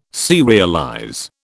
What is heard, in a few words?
"serialize", British